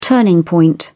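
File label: "turning point", British English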